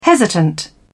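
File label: "hesitant", British English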